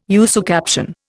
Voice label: "usucaption", Indian